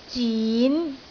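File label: "zin2", Cantonese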